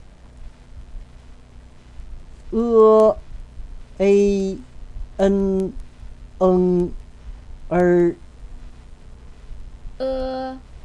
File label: pinyin, chinese